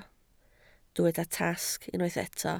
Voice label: "tasg", Welsh

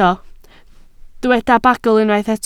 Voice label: "bagl", Welsh

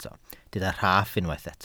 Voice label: "rhaff", Welsh

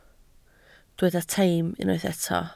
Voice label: "teim", Welsh

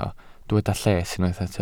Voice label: "lles", Welsh

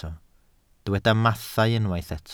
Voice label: "mathau", Welsh

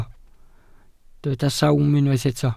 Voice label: "sawm", welsh

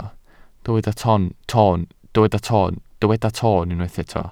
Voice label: "toon", Welsh

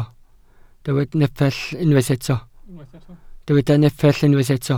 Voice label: "nepell", welsh

